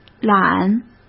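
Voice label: "懒", Mandarin Chinese; hsk; chinese-flashcards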